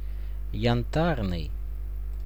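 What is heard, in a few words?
"Yantarnyj" Russian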